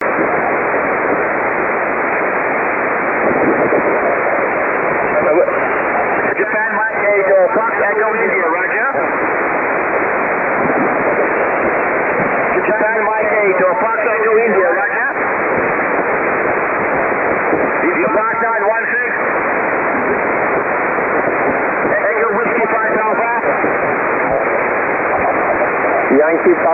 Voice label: cqwwdx 15m